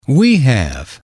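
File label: we have, male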